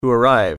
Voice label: "arrive", English

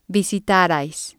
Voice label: "visitarais", Spanish

